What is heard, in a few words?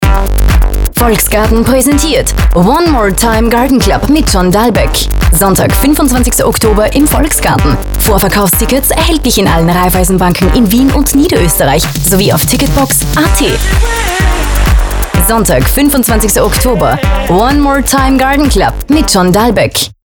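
german austrian female commercial; voice demos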